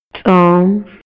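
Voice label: Maya, male; INALI; MayaYuc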